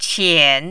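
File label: "qian", Mandarin, third tone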